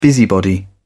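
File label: "busybody", English, British English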